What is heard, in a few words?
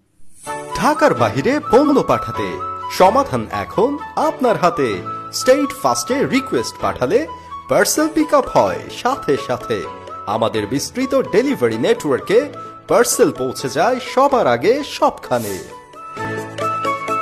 ads; stead fast